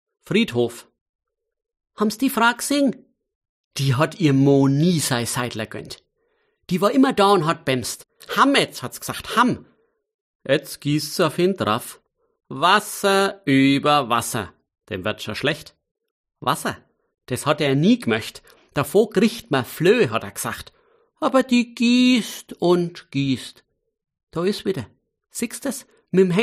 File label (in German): Mundart